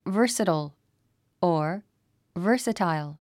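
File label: "versatile", English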